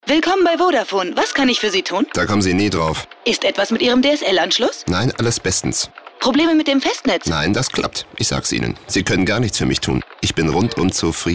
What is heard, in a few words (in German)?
Sprecherin; WERBUNG; VODAFONE: Kein Problem